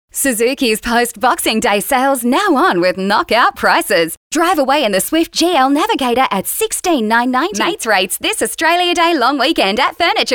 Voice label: Hard Sell